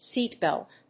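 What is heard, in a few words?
"seatbelt", English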